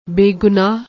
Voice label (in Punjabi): "begunaah", Punjabi